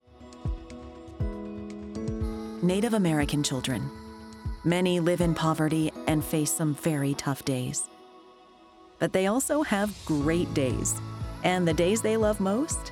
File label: Radio PSA; Love My Day